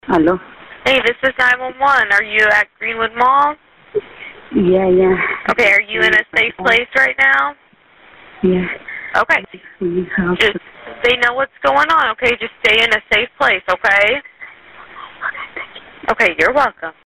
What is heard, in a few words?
911 dispatch checks on woman; greenwood-park-mall-911-dispatch-checks-on-woman